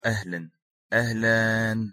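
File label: pronunciation ahalan, Arabic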